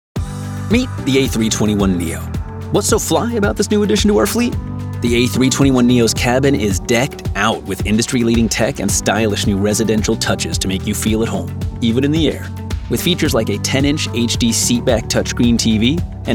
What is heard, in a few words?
CORPORATE